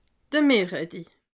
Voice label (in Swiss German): "meerretti", alsace